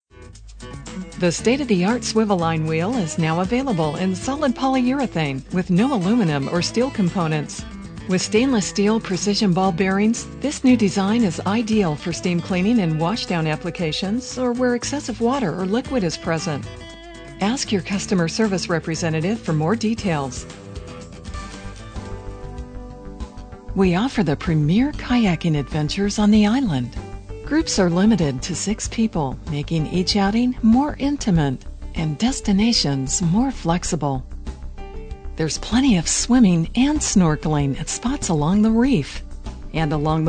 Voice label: On Hold Messages